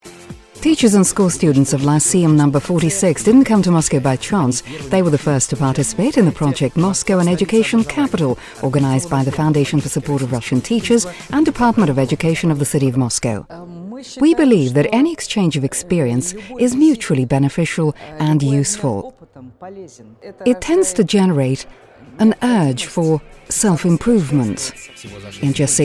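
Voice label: British; uk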